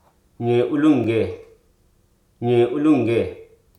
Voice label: "indoor", Hruso